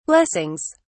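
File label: "blessings", British English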